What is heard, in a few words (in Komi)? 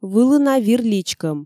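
"вылына вир личкӧм", Komi, female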